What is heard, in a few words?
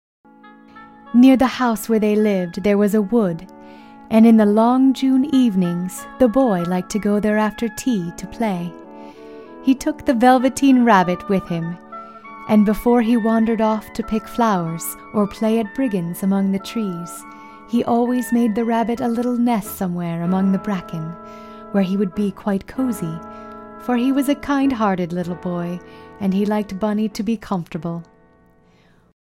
Price Audiobooks